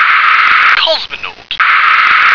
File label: "cosmonaut", English